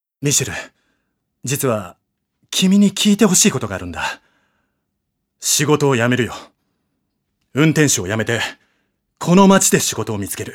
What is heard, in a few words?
male voice; セリフ